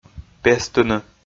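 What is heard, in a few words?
"пестыны", Komi, male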